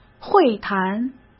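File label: "会谈", Mandarin Chinese; chinese-flashcards; hsk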